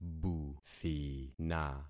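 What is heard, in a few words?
"buthina", concatenated syllables; Affixing experiment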